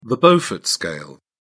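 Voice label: "beaufort scale", British English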